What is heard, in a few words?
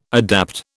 "adapt", British